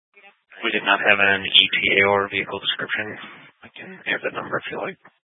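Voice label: Sheriff Dispatch, Scanner audio recording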